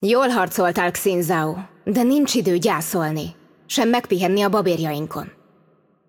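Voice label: voice over, Hungarian; Game Outro; bloom minigame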